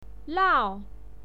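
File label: "lao", pinyin, fourth tone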